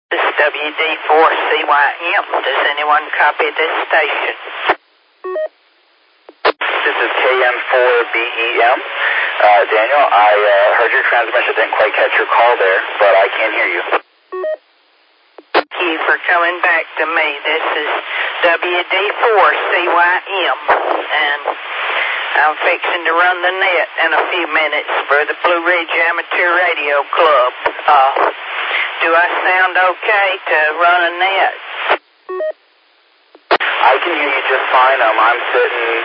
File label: qso rec repeater logic